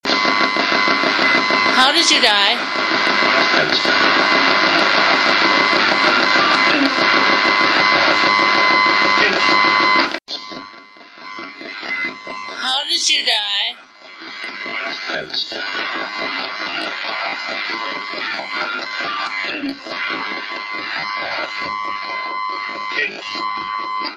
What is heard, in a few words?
radio voices how did you die